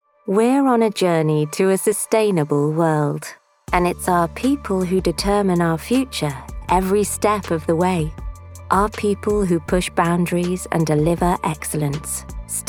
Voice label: Narration Showreel; corporate narration demo